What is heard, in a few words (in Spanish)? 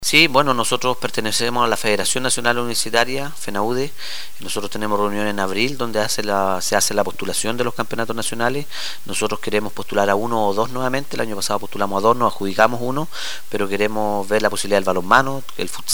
Cuña